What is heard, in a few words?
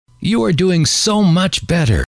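you Are Doing So Much Better, male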